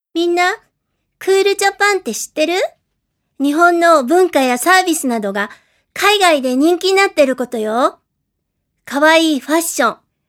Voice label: female voice